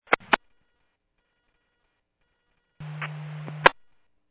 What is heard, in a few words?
Medevac, scanner; NativeAirAmb